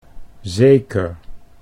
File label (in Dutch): "zeker", Dutch